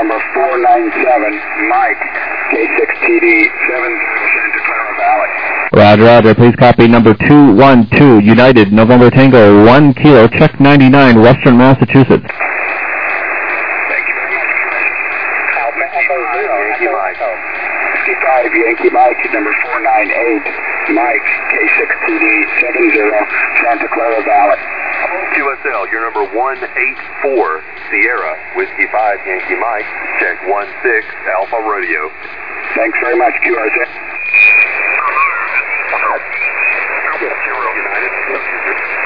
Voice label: SSB USB 21MHz